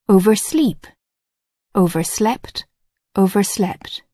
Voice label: "oversleep", English